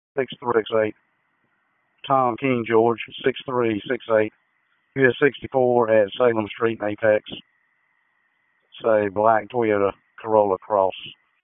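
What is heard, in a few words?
Dispatch, p25; WSO DISP 1; wake p25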